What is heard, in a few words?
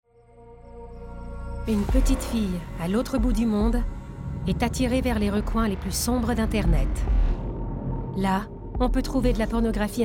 narration